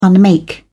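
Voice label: "unmake", English, British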